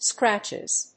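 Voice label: "scratches", English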